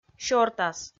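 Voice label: "сёртас" Komi, female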